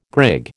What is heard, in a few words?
"grig", American